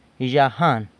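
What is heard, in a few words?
"Yzhagan", San Lucas Quiaviní Zapotec; Goodbye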